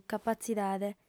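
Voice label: "capatzidade", female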